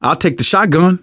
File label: take auto shotgun, survivor voice manager; Left 4 Dead 2; left4dead2 dlc2